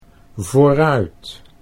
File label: "vooruit", Dutch